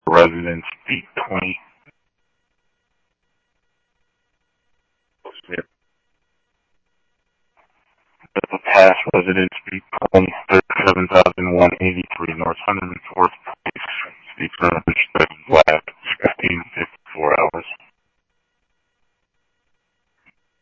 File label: Police, scanner audio trunk; H-Scottsdale